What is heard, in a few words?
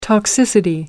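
"toxicity", British English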